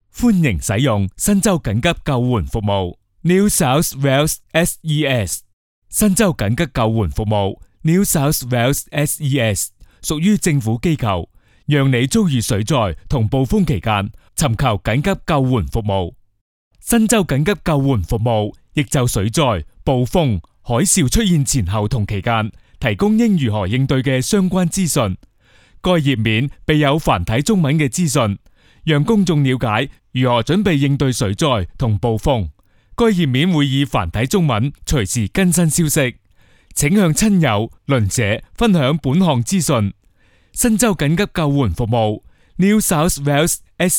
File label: cantonese chinese traditional